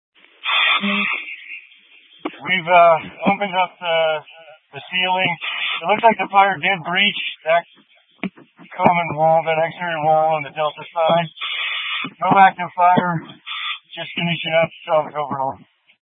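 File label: Incident Tac 1, scanner audio recording, Grand Junction, Mesa County, Colorado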